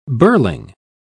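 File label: "birling", British English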